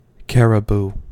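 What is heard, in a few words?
"caribou", US English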